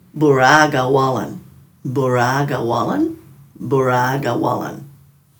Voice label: "buraagawalin", Dhurga